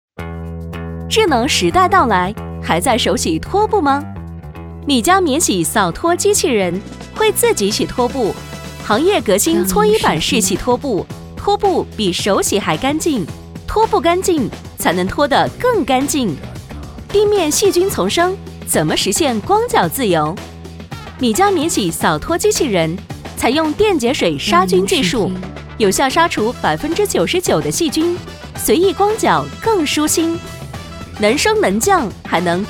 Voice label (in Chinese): 女 专题 科技产品; 米家免洗扫托机器人